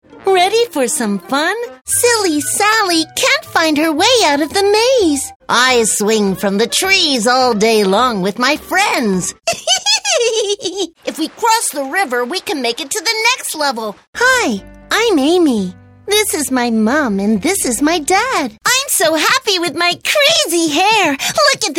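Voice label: American, toys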